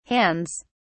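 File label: "hands", British English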